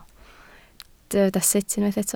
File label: "sut", Welsh